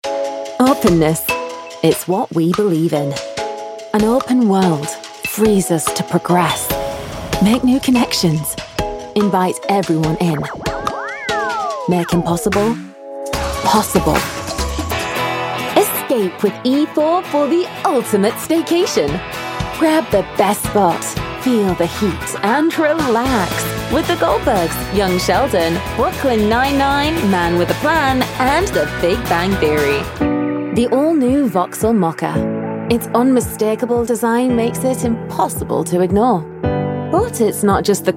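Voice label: lancashire voice commercial, Lancashire Female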